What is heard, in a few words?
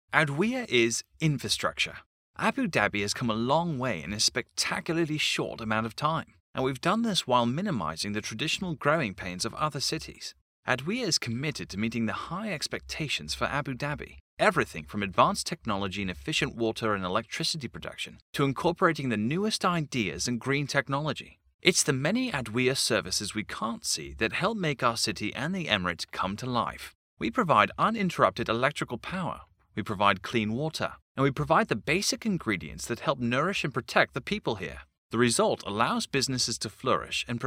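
British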